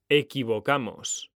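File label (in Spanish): "equivocamos", Spanish; mekanta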